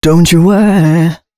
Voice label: female f105, spoken hooks; Mutekki Media; Dance Ultra Voice VOL-1